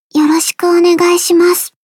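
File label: start, Japanese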